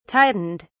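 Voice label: "tightened", British English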